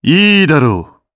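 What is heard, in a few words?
voice Aff2, voices heroes, Japanese; Shakmeh; kingsraid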